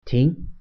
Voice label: "stop", Mandarin

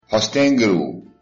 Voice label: where from, chechen

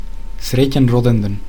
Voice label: birthday, macedonian

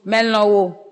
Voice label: i love you, ewe

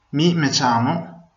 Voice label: my name is, venetian; Mi, me ciamo ...; Gò nòme ...

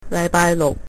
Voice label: saturday, cantonese

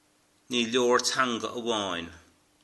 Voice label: one language, Irish

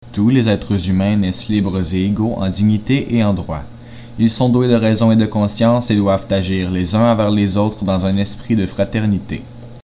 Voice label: udhr fr ca

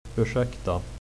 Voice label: excuse me, swedish

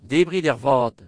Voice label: bon appetit, Breton; Debrit ervat!

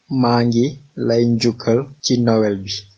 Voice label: christmas, wolof

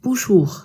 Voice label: hello, alsatian

